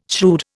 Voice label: "shrewd", Indian